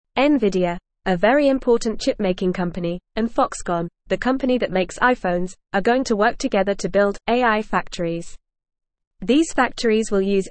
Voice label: English Newsroom Lower Intermediate FAST Reading; Nvidia and Foxconn Join Forces to Build AI Factorie